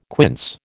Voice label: "quince", American